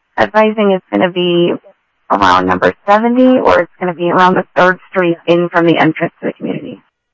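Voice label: Sheriff East County, scanner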